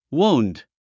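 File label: "wound", English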